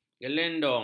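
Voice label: "gelendong", Malay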